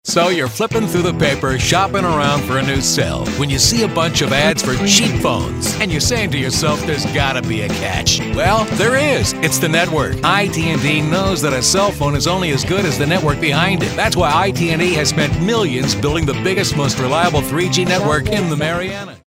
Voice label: tough and gritty